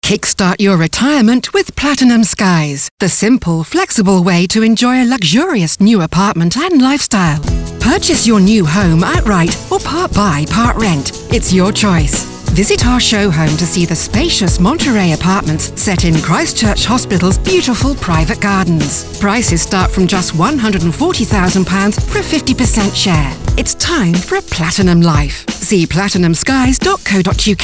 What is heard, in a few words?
Commercial Radio; Platinum Skies